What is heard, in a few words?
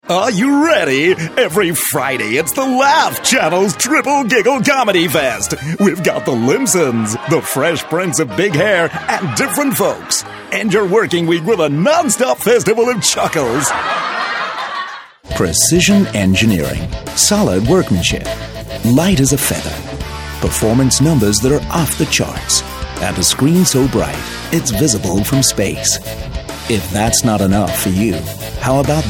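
American English, TV commercial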